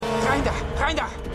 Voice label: Mann; Arrow

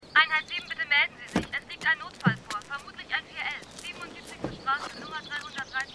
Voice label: Polizeifunk; Outer Limits

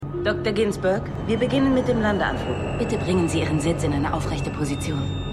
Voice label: Flugbegleiterin; Falling Water

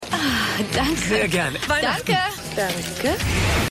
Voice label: Kundin Kassiererin; Alle Hassen Chris

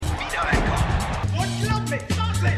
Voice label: Sportkommentator; Winning Time

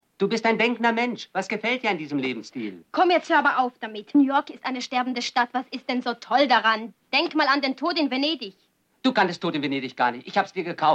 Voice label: Vorsprechender Schauspieler Vorsprechende Schauspielerin, Stimmproben; Der Stadtneurotiker

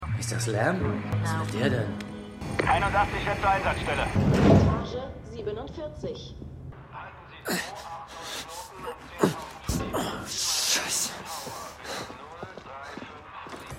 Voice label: Videowachmann Funk Fahrstuhl Funk; Slow Horses

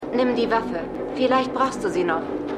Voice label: Frau; The Lincoln Lawyer

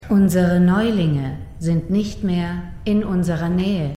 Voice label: Automatische Stimme; Extrapolations

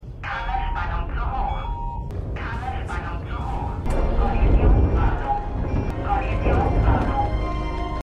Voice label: Automatische Computerstimme; Glasnost; For All Mankind

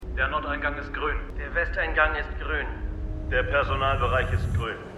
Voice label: Funkstimme; Nord West Personal

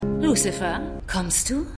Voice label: Frau Mit Zylinder; Lucifer

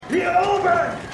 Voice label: Orc, Stimmproben

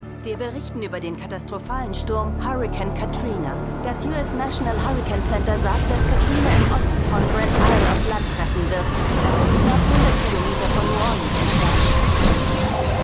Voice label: Nachrichtensprecherin; MEMORIAL HOSPITAL - DIE TAGE NACH HURRIKAN KATRINA (DF 2022); Five Days at Memorial

